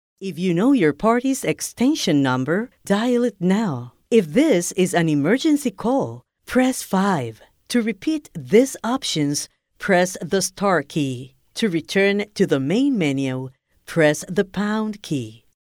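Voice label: Spanish Latin America female English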